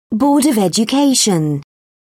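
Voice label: "board of education", British English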